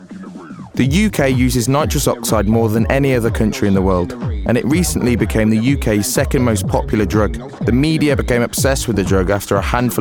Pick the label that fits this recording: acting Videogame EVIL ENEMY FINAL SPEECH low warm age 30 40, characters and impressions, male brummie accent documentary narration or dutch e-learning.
male brummie accent documentary narration